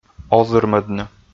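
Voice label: "озырмӧдны", Komi, male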